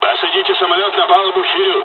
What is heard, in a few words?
landing, dialogs russian; midway jpn; War Thunder